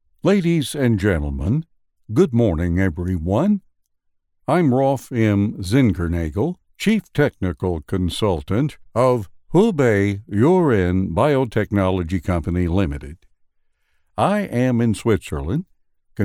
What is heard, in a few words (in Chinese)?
英文; 罗夫